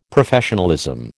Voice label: "Professionalism", American